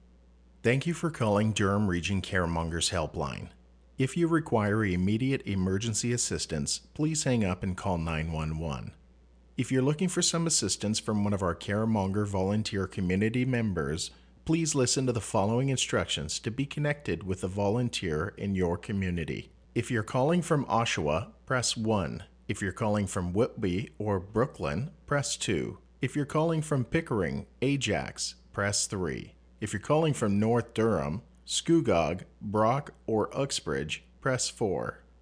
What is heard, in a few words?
Regional IVR